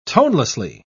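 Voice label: "tonelessly", British English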